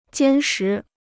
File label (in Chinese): "坚实", Chinese